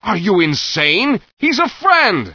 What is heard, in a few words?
scared; Source Engine; bshift; rosenberg